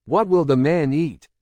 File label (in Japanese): ノーマル